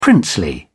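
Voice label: "princely", British English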